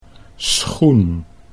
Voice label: "schoen", Dutch; 'shoe'